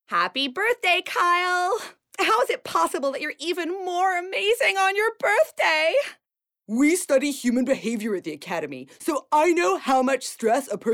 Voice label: US Animation Demo Reel